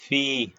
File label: short voyels arabic alphabet